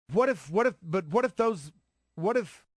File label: stammer